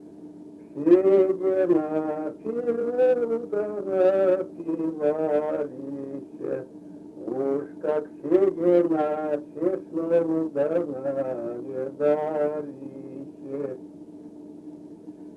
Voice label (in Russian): Речевой портрет, мезень